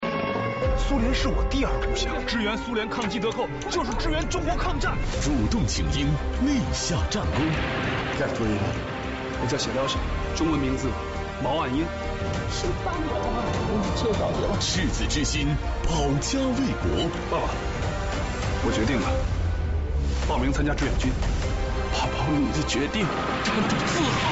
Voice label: 男 纪录片; CCTV8-毛岸英

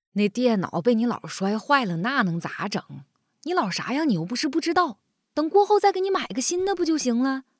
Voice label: 母亲角色

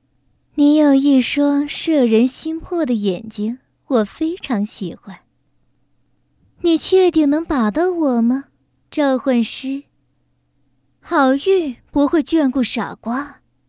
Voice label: 女 游戏; 厄运小姐

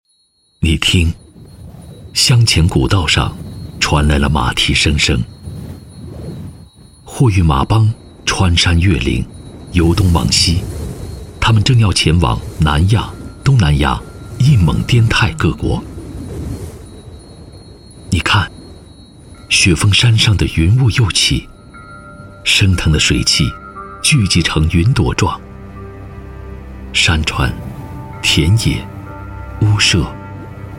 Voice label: 男 宣传片 沉稳宣传片

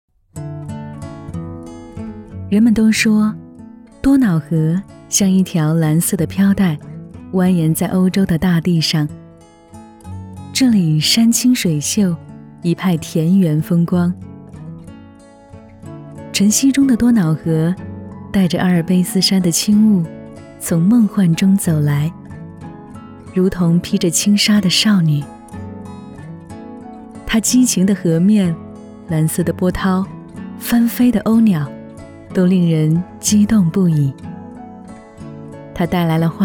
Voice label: 女 景区推介 自然优雅

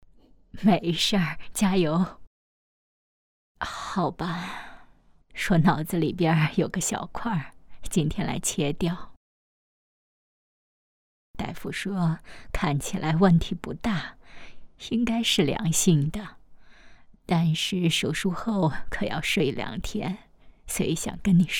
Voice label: 母亲角色配音

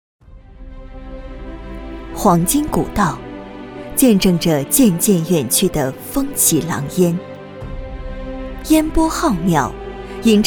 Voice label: 女 城市宣传片; 嫩江沿江

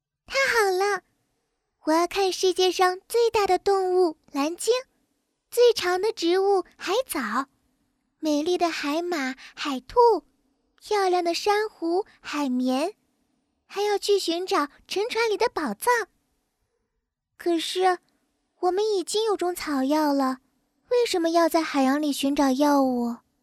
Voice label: 女 角色 天真女孩; 小行星2

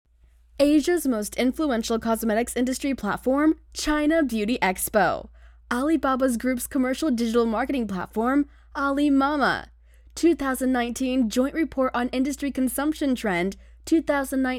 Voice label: 活动英语主持配音; Mei-yi white Paper Mei-yi Festival Video Script

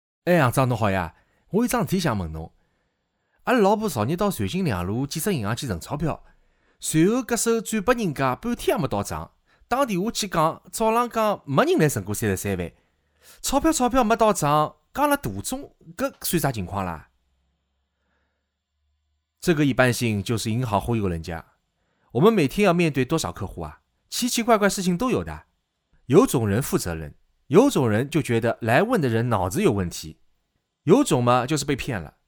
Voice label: 男, 上海话, 上海普通话

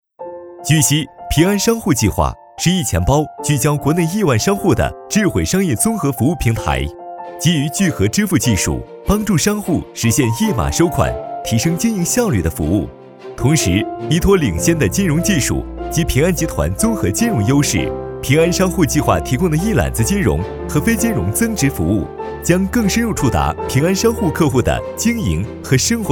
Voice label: 男 MG 商务风; 平安

